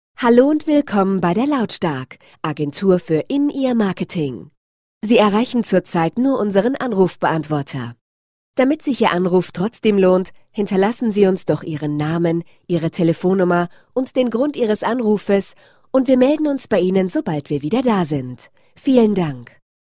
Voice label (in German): anrufbeantworter ansage; deutsch